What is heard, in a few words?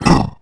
Orc hurt; SFXEnemies; Dungeon Defender; DunDef